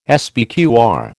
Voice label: "SPQR", American

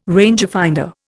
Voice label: "rangefinder", Indian

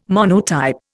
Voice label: "monotype", Indian

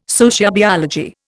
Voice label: "sociobiology", Indian

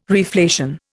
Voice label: "reflation", Indian

